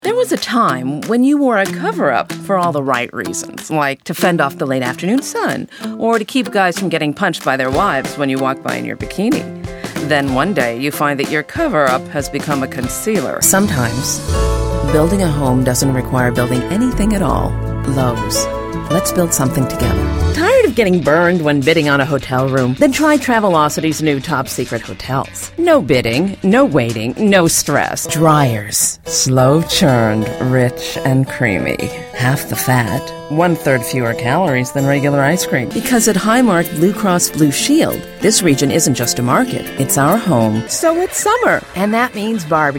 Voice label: Commercial; Demos 2011